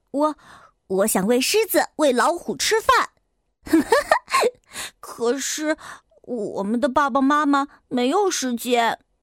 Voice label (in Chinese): 女 男童